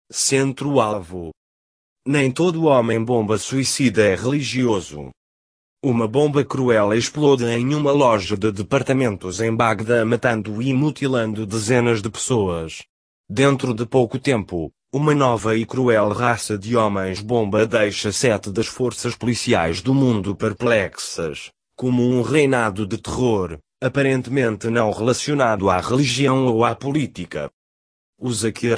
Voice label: Portuguese